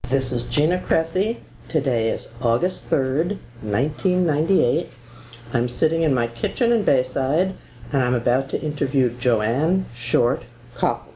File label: Noise Removed, Oral History